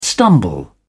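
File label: "stumble", English, uk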